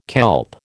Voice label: "kelp", American